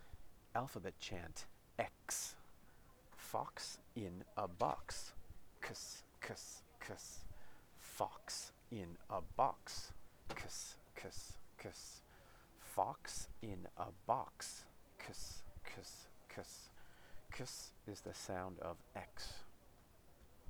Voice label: x chant